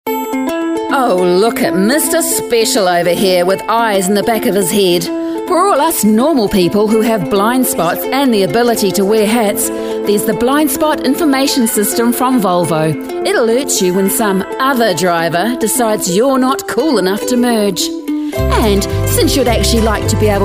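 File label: English New Zealand Commercial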